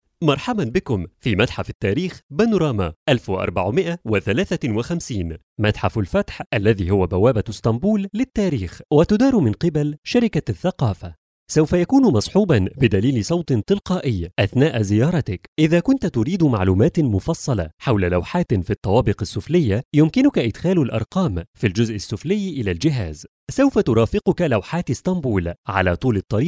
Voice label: arabic documentaries